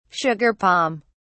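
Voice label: "sugar palm", British English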